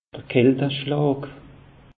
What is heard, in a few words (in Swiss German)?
"kalte anschlaa", alsace; meteo